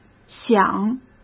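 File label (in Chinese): "想", Mandarin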